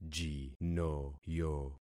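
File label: "jinoyo", concatenated syllables; Affixing experiment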